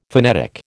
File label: "phonetic", American